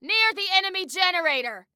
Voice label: Warn Enemy Near Enemy Generator, Female